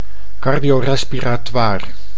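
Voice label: "cardiorespiratoir", Dutch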